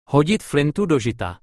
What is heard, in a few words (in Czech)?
hodit flintu do žita, Czech